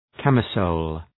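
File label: "camisole", English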